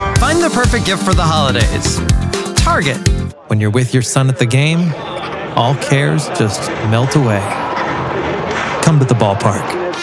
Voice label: Commercial